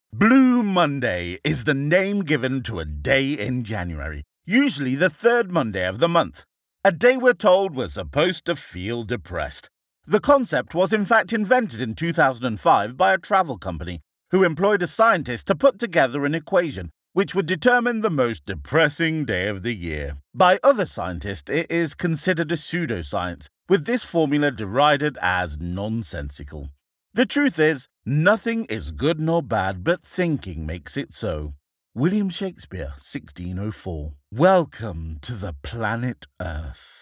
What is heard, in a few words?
Adult non fiction